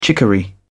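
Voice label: "chicory", British English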